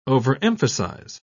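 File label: "overemphasise", British English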